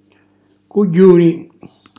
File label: "cugghjuni", Calabrese; coglione